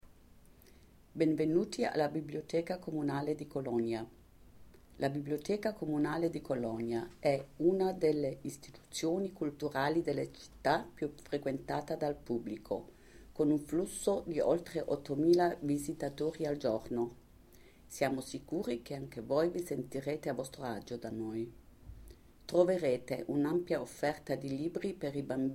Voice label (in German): italienisch laut